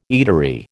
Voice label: "eatery", American